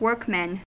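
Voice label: "workmen", US English; pronunciations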